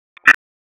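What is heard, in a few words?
Police Special Operations Tactical; Raleigh; Spec Ops Tac 4; wake; p25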